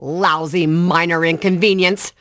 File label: Female Lousy